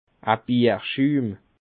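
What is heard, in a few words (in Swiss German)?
"bierschum", Bas Rhin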